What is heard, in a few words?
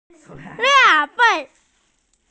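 "waste", Mandarin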